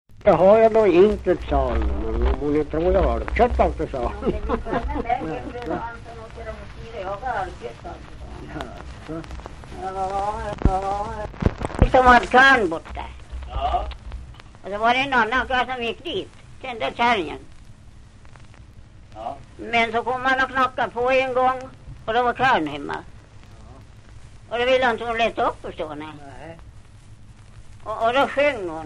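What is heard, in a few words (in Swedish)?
Valbo Gästrikland, dialekter; Folkminnen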